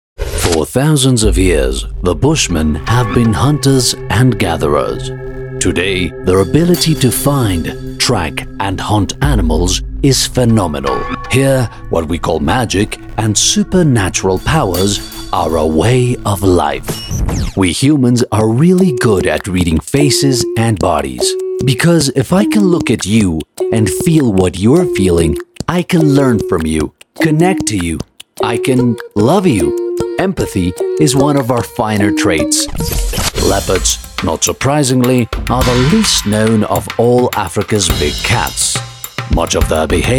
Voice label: Documentary

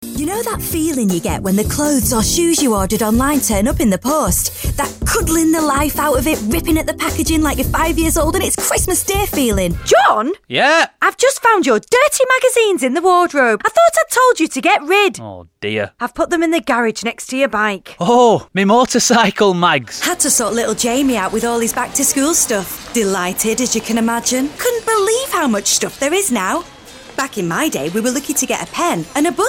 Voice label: Northern Accent Lancashire Greater Manchester

